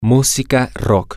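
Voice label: rock music, Spanish, male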